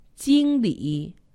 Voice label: "jingli", Chinese; Survival Chinese